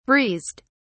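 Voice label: "breezed", British English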